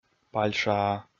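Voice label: "пальшаа", Komi, male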